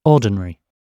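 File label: "ordinary", British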